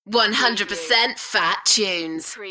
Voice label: UK female 100 Percent fat tunes; beanstalk audio